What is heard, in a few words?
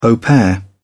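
"au pair", British English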